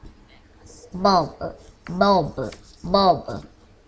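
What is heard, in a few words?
"bulb", spoken word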